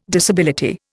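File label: "disability", Indian English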